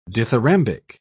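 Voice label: "dithyrambic", British English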